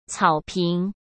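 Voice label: "草坪", Mandarin Chinese; hsk; chinese-flashcards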